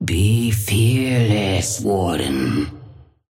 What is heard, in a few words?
Patron female ally warden start